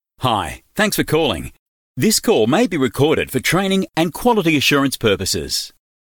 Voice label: Short welcome Call Recording male